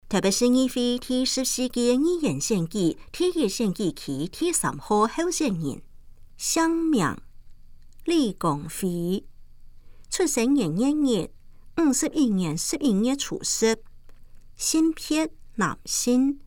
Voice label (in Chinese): 客語; 臺北市; 有聲公報; 市議員; 臺北市議會第14屆議員第1選舉區 (士林、北投區)候選人介紹